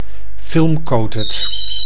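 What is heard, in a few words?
"filmcoated", Dutch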